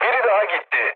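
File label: Turkish player killed enemy, mood low, speech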